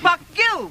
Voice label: "fuck you" female; expletives

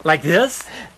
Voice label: male

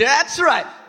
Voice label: "that's right", male

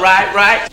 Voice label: "right right" male

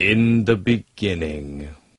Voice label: in the beginning male

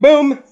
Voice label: "boom", Voice; ooh ahh

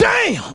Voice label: "damn", expletives

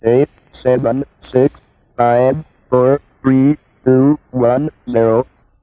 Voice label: "1 2 3" robot; Voice; numbers and letters

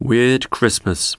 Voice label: weird christmas male; Voice; xmas

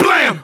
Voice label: "blam", Voice

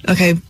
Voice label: "okay", expletives

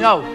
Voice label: "no", loud and sudden; Voice; expletives

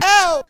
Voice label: "oh", cartoon; ooh ahh

